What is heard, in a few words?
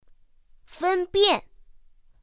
"fēnbiàn", pinyin; pinyin2; pinyinji